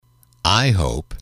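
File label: "I hope", English, male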